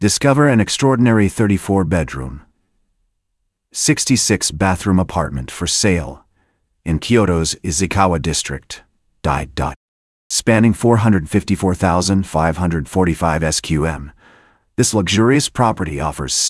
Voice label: narration audio